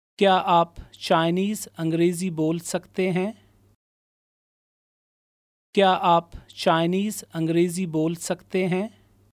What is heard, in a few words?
Can you speak Chi Eng Urdu male